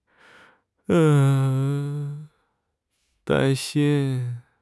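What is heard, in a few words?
"dan1 xin1", Mandarin Chinese; HSK3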